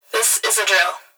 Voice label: Emergency Human Female Voice Plant Security Dispatch This Is A Drill; emergency effects